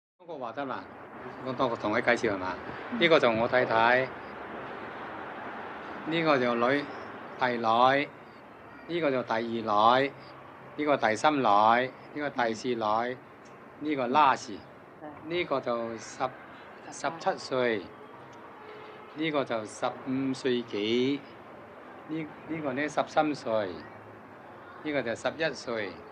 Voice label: cantonese